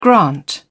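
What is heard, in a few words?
"grant", English, British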